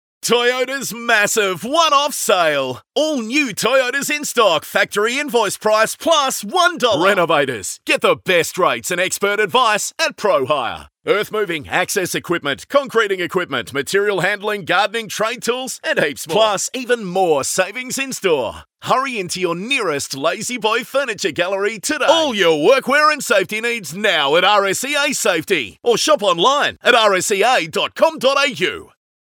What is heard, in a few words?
Hard Sell; Voice Overs